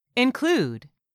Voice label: "INCLUDE", english; 3000 words

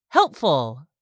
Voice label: "HELPFUL", english; 3000 words

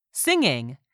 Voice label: "SINGING", English; 3000 words

